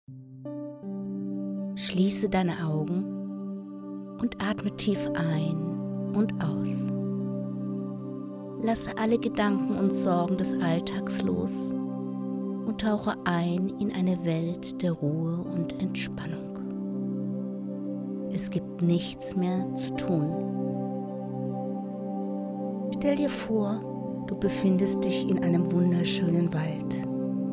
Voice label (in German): Meditation